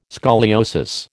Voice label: "scoliosis", American